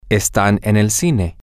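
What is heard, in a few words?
theyAreAtTheMovieTheater, Spanish, male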